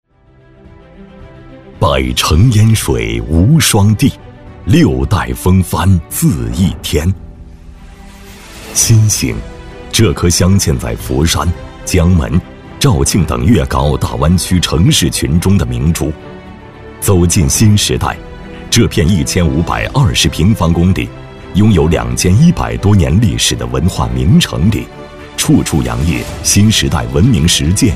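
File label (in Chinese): 男【宣传片】; 新志愿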